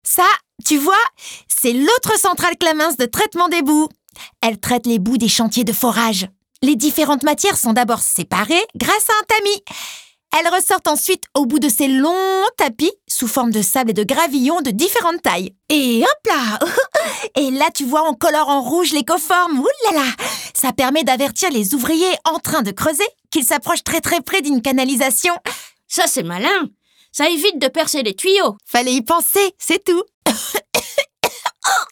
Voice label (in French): Dessin Animé, voix off comédienne